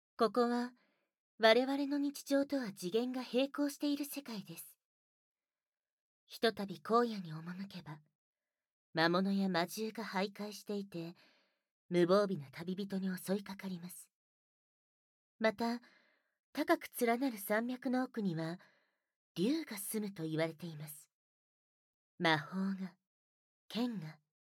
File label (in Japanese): ナレーション